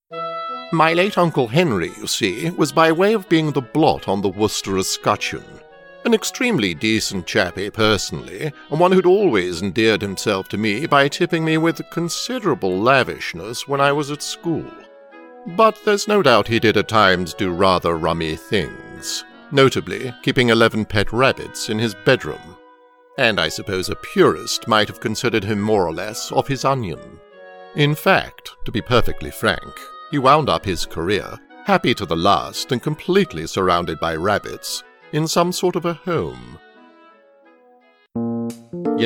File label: english british animation